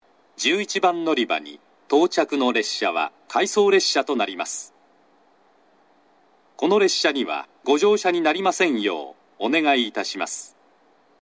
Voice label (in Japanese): 長崎 西九州新幹線ホーム 自動放送, 11番のりば接近放送（当駅止まり・回送列車）